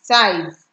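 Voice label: "size", Nigerian Pidgin, female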